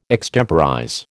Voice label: "extemporize", American